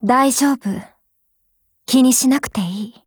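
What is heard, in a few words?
DIALOGUE, Japanese; Vector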